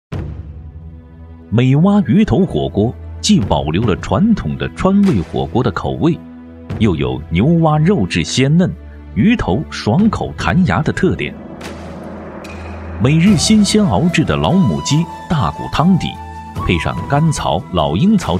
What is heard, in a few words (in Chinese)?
男 纪录片; 舌尖; 鱼头火锅